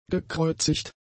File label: "gekreuzigt", German; adjectives